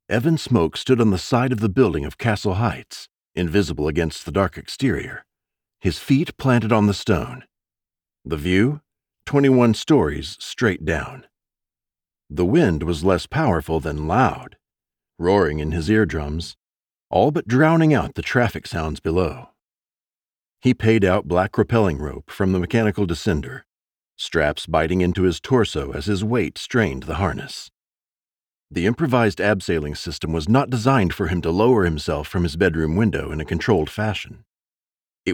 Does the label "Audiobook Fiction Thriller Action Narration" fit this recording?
Yes